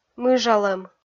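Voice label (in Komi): "мыжалӧм", Komi, female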